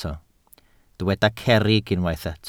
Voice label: "cerrig", Welsh